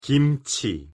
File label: "김치" Korean pronunciation